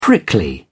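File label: "prickly", British English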